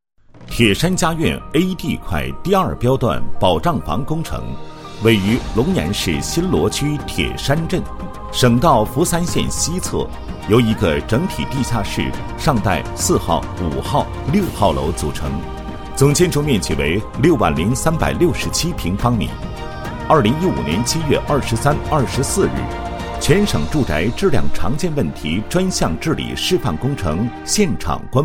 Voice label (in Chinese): 男 解说 解说稿; 观摩会影像资料解说稿